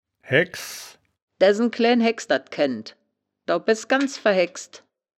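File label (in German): "haegks", wittlicher woerterbuch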